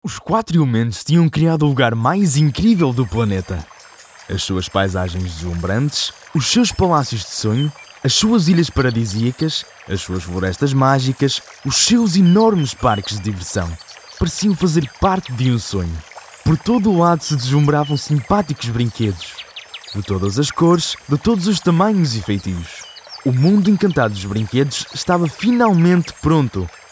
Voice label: Portuguese - Iberian